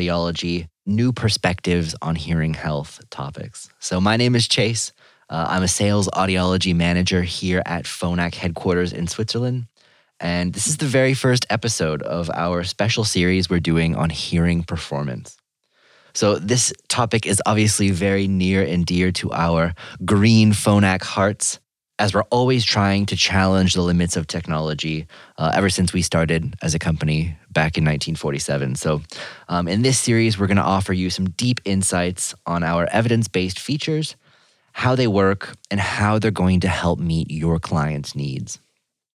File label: Podcast; Phonak; Audiologist